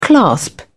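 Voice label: "clasp", British English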